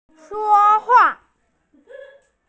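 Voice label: "speak", Mandarin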